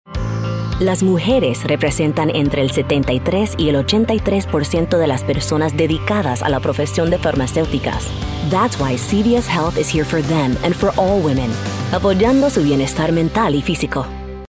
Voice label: Spanglish Commercial Bilingual Healthcare Inspirational; CVS